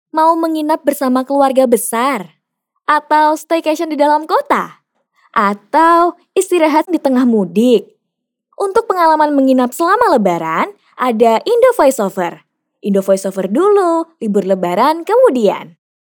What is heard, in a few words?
casual; BAHASA INDONESIA